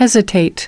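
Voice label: "Hesitate", English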